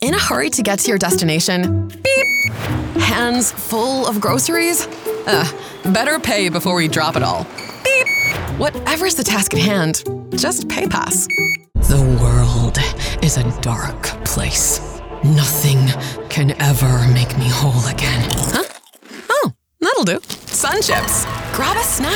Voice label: women commercial; Deep, smooth, dynamic, versatile, warm, maternal, rich, and authentic.